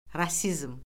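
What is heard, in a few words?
"rasizm", Azerbaijani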